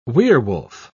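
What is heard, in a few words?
"werwolf", British English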